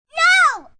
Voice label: No Yell Kids, child, girl; Exclamation